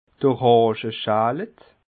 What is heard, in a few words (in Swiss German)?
"orangeschalet", alsace